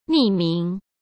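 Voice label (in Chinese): "匿名", Mandarin Chinese; hsk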